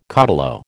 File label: "caudillo", American